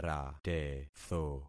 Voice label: "ratetho", concat syllables; Affixing experiment